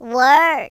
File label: "fire", Gangsta; speech